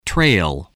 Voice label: "trail", voice